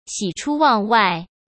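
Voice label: "喜出望外", Mandarin Chinese; hsk; chinese-flashcards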